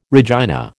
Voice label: "Regina", American

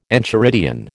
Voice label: "enchiridion", American

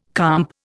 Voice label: "comp", Indian

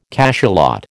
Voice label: "cachalot", American

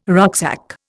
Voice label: "rucksack", Indian

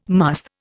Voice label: "musth", Indian

